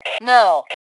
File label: Global No, Female; T2 Female 03